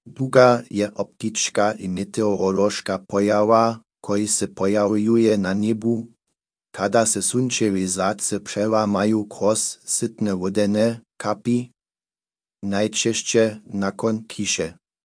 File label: speaker, sr_RS